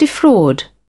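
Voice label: "Defraud", British English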